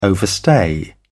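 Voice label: "overstay", English, British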